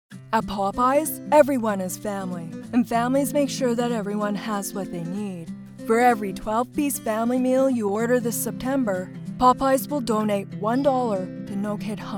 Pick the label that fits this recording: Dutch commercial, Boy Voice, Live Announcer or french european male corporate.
Boy Voice